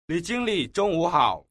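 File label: "li jing li, zhong wu hao", Mandarin Chinese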